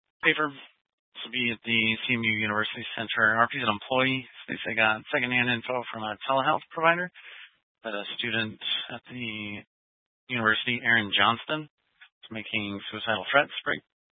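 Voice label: Police Dispatch, scanner audio recording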